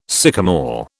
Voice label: "sycomore", British